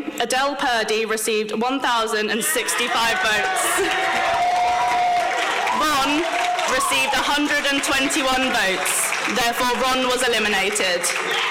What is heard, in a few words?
su election mature students result announcement